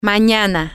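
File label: tomorrow, Spanish, female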